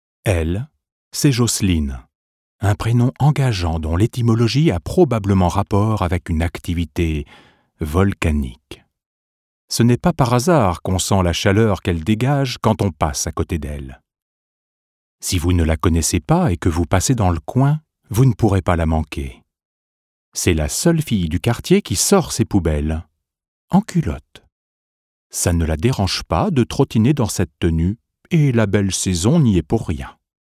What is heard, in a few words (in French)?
voix off livre extrait; le temoin